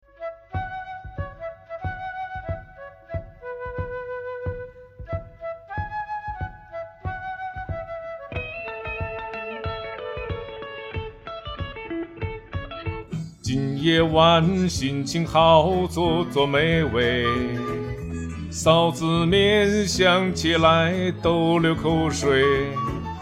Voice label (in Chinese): 说唱广告试音